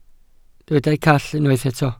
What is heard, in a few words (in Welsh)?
"call", Welsh